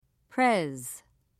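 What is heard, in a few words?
"pres", British English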